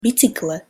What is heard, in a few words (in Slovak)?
pronunciation sk bicykle